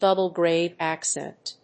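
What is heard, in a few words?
"double grave accent", English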